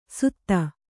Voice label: "ಸುಧ", Kannada